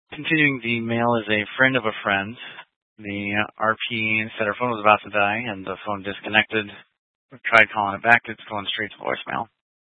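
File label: Police Dispatch, Scanner audio recording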